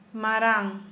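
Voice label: Santali female elderly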